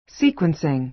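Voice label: "sequencing", American English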